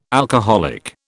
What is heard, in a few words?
"alcoholic", British